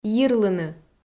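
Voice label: "йирлыны" Komi, female; audio dict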